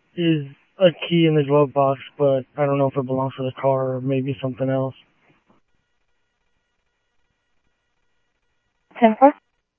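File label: Sheriff West County, scanner audio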